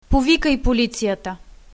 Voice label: police, bulgarian